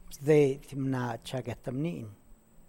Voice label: The woman gave the child a tortilla, San Lucas Quiaviní Zapotec